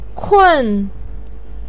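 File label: "kun" fourth tone, chinese, voice pinyin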